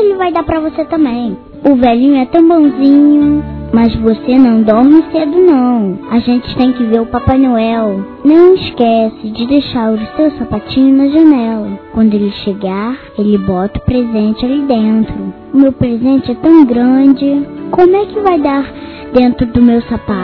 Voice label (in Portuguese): Natal PARA Amiguinha (INFANTIL) Feminino; Telemensagens; Natal e Ano novo